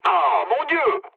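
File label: French trick mood med; War Thunder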